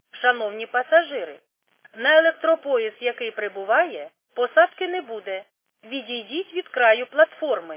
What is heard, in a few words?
khs st female, subway station